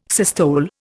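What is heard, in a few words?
"systole", Indian English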